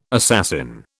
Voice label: "assassin", British